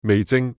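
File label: "味精", Cantonese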